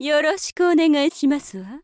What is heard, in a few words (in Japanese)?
witch greeting